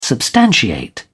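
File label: "substantiate", British English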